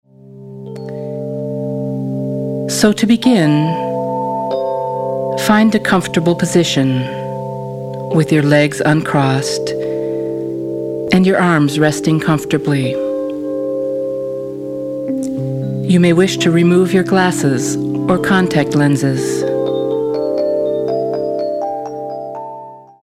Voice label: Sensory Relaxation